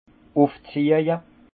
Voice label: "ufzieje", alsace; vin; élevage

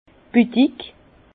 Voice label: "budig", Bas Rhin; vin; atelier

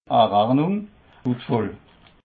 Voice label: "rechnung", Alsace

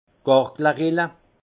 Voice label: "garglerille", alsace; vin; rainure

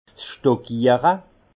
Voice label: "stockiere", alsace; vin; stockage

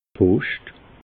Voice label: "poscht", alsace

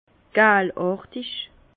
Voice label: "galartig", Bas Rhin; jaunâtre; vin

